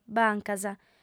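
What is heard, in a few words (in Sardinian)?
"bancas", female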